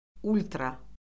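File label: "ultra", Azerbaijani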